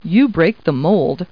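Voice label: woman, Talking Clip Words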